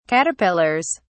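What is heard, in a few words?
"caterpillars", British English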